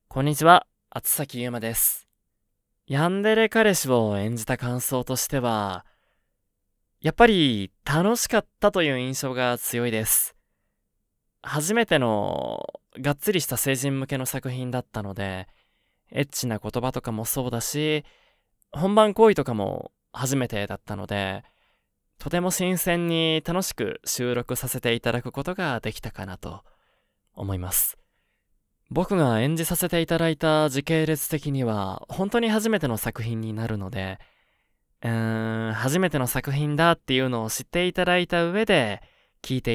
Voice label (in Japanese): フリートーク